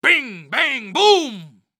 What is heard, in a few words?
Cartoon Loud Voice Bing Bang Boom